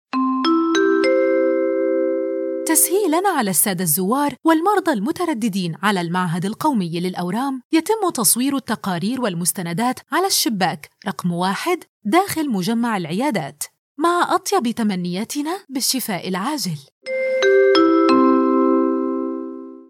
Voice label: Hall Announcement; IVR; National Cancer Institute in Cairo